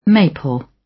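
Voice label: "maple", British; Flora and fauna, agriculture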